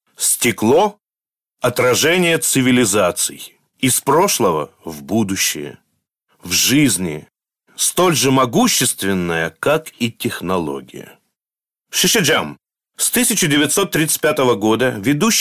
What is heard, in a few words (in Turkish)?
RUSCA